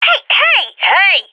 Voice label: taking fire friendly, vo agents; fbihrt epic